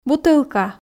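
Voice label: "бутылка", Komi, female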